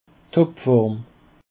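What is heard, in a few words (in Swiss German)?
"topform", Alsatian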